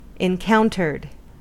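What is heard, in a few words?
"encountered", US English; pronunciations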